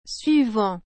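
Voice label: "suivant", French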